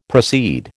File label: "proceed", American